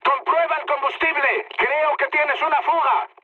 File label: tank damaged, mood high, speech; War Thunder